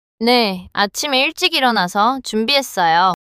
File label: neutral